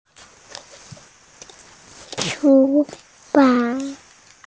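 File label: "chu2fang2", Mandarin Chinese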